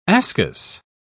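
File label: "ascus", British English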